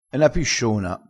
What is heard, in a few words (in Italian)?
"pisciona", crespinese